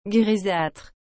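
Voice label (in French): "grisâtre", French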